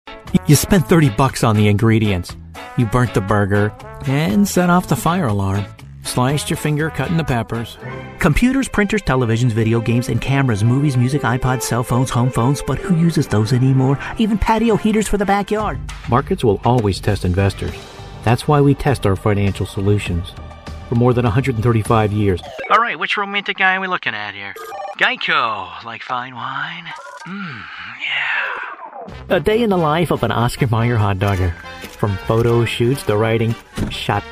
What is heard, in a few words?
english us m commercial, voice demos